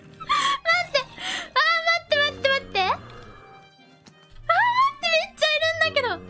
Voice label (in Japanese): 焦りボイス; マイクラ